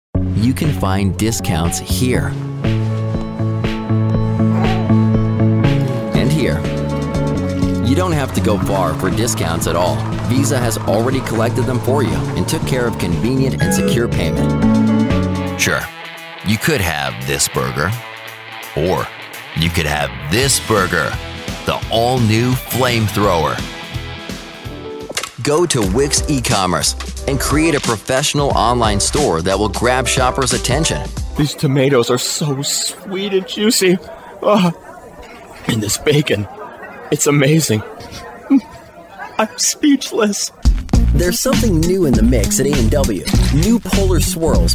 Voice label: Commercial and TV

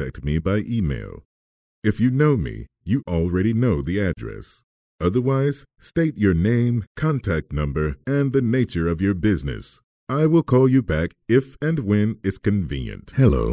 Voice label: Voicemail

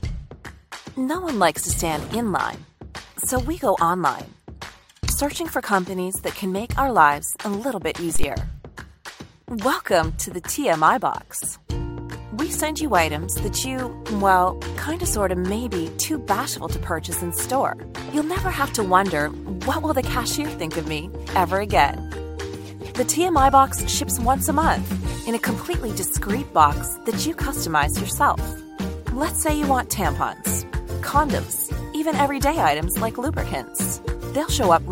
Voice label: Explainer Video; The TMI Box; Vimeo

